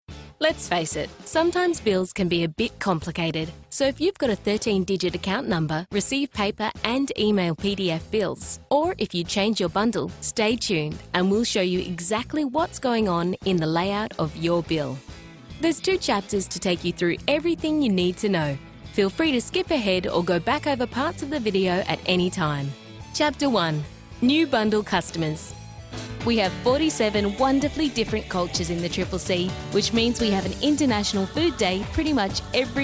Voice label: corporate; EM Voices